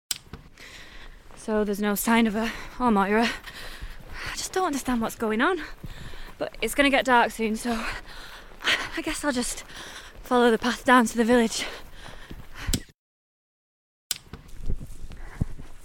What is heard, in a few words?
Drama